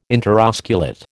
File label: "interosculate", American